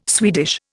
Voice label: "Swedish", Indian

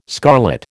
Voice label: "scarlet", American

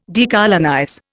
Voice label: "decolonize", Indian

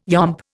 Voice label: "yomp", Indian

